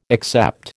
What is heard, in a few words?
"except", American